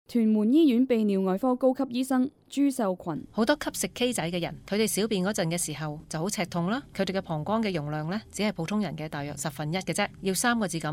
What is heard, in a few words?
cantonese; 向毒品说不(听医生话)(一)